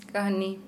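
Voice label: story, Santali, female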